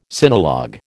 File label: "sinologue", American